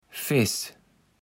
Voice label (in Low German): "Feß", Platt